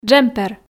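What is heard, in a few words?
"джемпер", Komi, female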